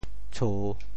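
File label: "tshu3", Teochew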